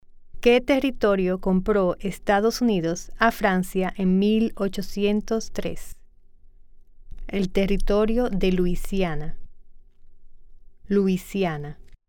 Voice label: Spanish